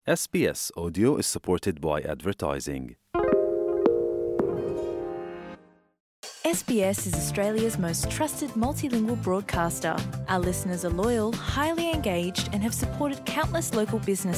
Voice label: gujarati news bulletin; sbs

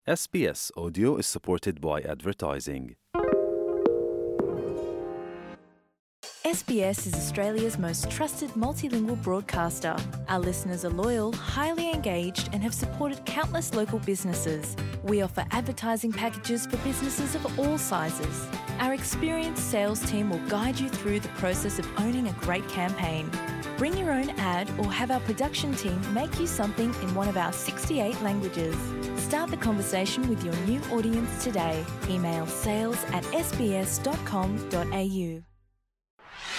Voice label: gujarati newsflash; sbs

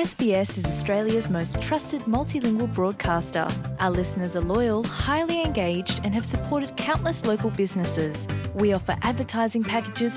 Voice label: tigrinya news podcast; sbs

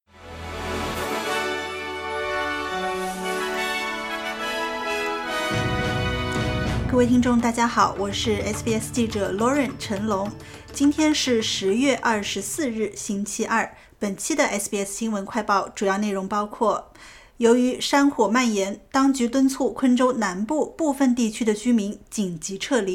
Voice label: mandarin news flash; sbs

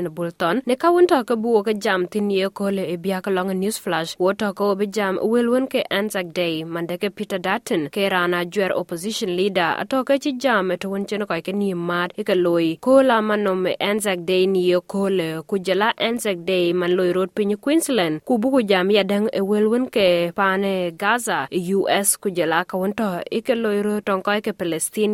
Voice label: dinka; sbs

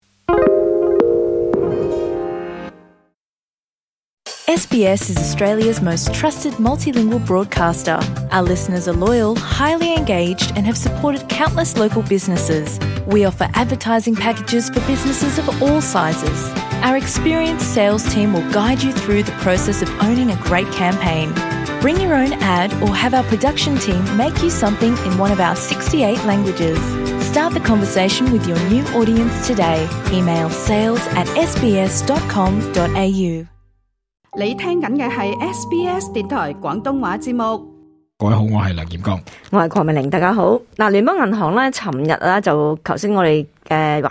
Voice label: cantonese; sbs

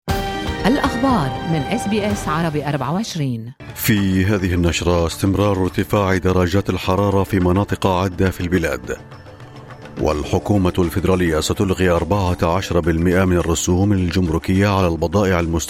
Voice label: arabic, newsflash

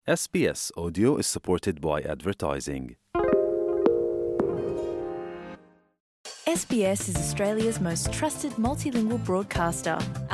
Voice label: gujarati news bulletin; sbs

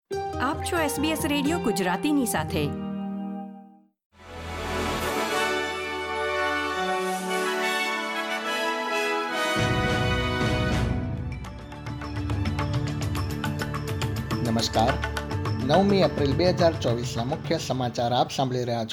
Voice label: gujarati news bulletin; sbs

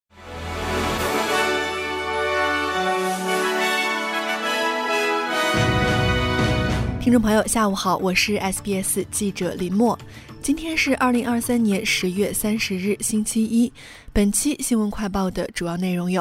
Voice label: mandarin newsflash; sbs

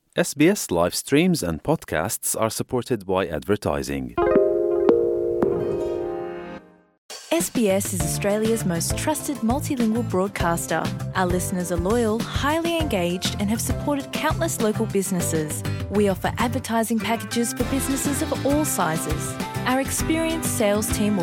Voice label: gujarati news bulletin; sbs

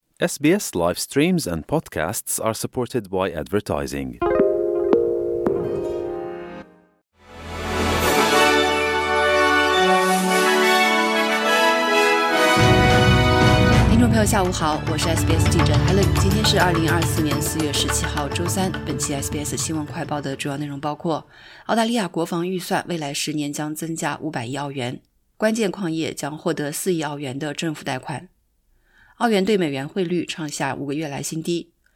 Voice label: mandarin newsflash; sbs

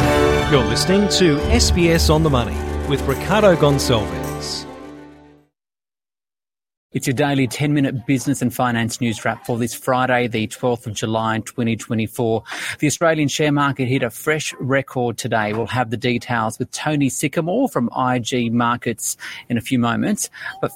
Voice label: english, podcast; sbs; money

